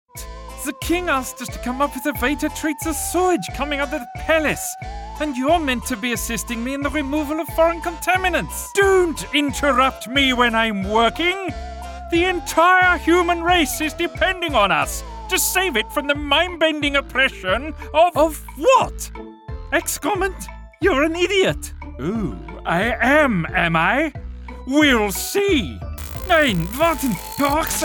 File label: Animation